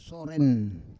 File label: "soren", Roon